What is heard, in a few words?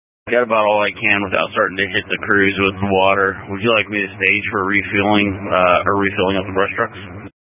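Incident Tac 2, Scanner audio recording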